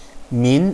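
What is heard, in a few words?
"min2", Chinese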